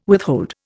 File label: "withhold", Indian